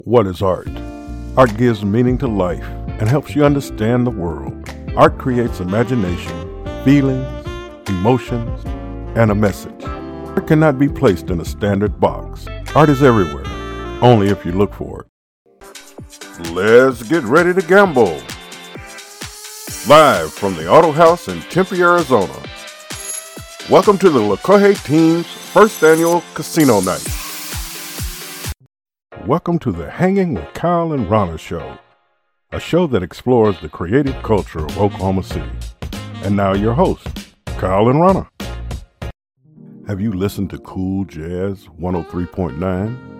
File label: PROMOTIONAL